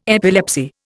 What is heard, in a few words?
"epilepsy", Indian